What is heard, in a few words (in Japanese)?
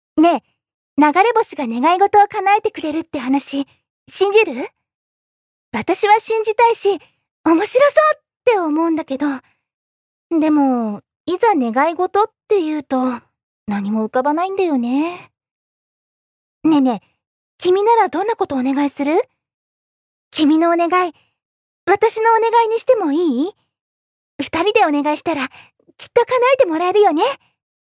f syozyo